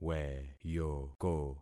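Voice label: "weyoko", concatenated syllables; Affixing experiment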